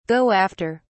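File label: "go after", British English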